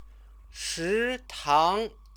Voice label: "shitang", Chinese

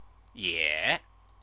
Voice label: "ye", Chinese

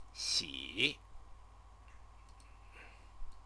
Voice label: "xi", Chinese

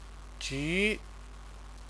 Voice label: "ju", Chinese

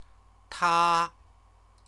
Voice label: "ta", Chinese

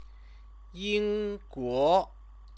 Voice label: "yingguo", Chinese; 英 国; Britain; proper name; Chinese lessons

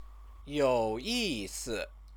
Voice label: "youyisi", Chinese

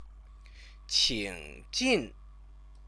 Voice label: "qingjin", Chinese

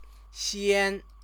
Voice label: "xian", Chinese

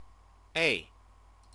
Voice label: "e", Chinese

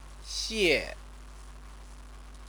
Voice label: "xie", Chinese

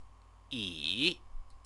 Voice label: "yi", Chinese

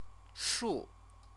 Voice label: "shu", Chinese; CTCFL; University of Oxford

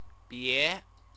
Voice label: "bie", Chinese; do not; adverb

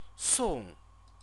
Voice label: "song", Chinese; CTCFL; University of Oxford

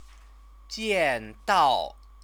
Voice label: "jiandao", Chinese; Chinese lessons

